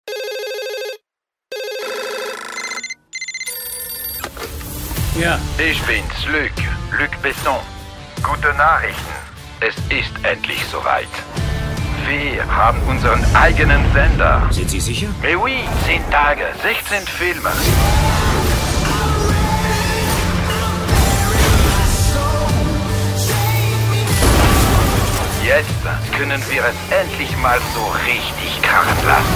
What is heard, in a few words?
Trailer; SKYCINEMA